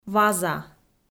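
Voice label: "ваза", Komi-Zyrian, female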